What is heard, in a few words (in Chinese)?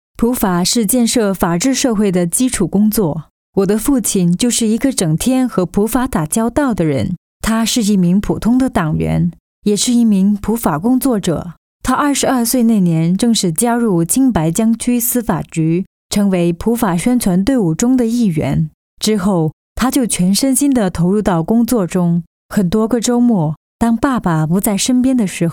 英女 中文; 我的父亲-普法工作者